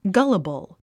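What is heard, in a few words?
"gullible", English; ごろたん 語呂でイメージする英単語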